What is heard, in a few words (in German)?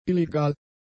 "illegal", German; adjectives